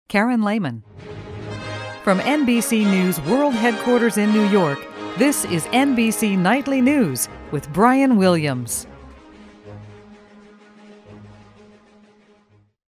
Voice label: Nightly News Open; NBC; Auditions